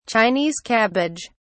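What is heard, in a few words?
"chinese cabbage", English, British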